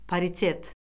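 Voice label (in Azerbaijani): "paritet", Azerbaijani; Orfoqrafiya Lüğəti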